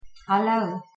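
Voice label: "hallo", Afrikaans, pronunciation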